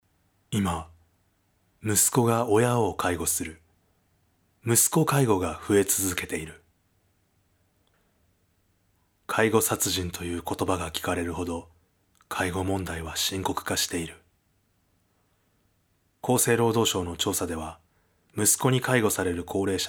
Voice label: male